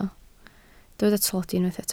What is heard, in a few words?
"tlodi", Welsh